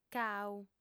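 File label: "cau", female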